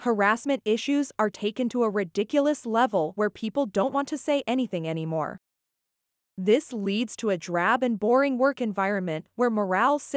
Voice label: female denier; conflicting perceptions